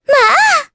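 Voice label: Vox Happy Japanese; Mirianne; kings raid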